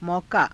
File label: "maukaa", Hindi